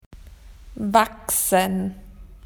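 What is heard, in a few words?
"to grow", Australian English; PictureDictionary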